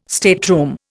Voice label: "stateroom", Indian

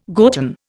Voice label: "gotten", Indian

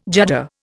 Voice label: "judder", Indian

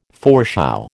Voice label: "foreshow", American

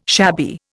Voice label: "shabby", Indian

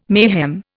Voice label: "mayhem", Indian

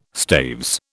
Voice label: "staves", British

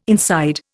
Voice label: "inside", Indian

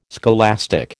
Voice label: "scholastic", American

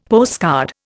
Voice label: "postcard", Indian; Dictionary

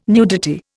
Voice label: "nudity", Indian

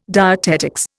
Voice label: "dietetics", Indian

